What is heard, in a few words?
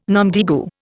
"nom de guerre", Indian